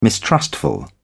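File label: "mistrustful", British English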